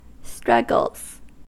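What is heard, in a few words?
"struggles", US English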